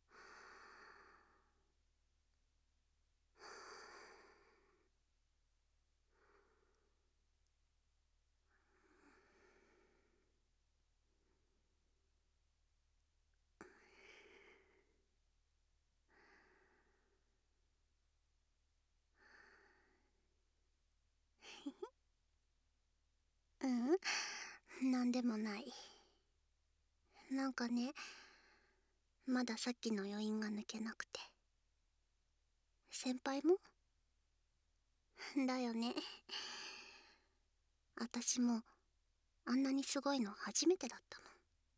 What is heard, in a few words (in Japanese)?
ピロートーク, ASMR; 3000倍幸せなピロートーク